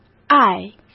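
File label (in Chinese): "爱" Mandarin Chinese; hsk; chinese-flashcards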